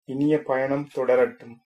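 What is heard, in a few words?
bon voyage, male, Tamil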